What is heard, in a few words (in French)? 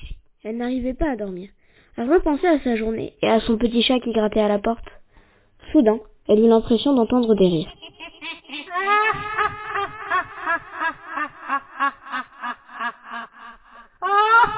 Fiction Horreur